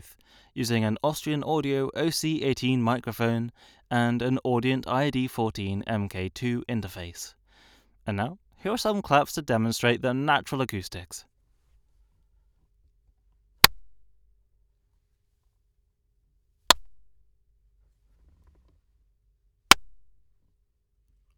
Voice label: Raw Studio Sample